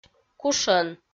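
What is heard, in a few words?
"кушӧн" Komi, female; kpv audio dict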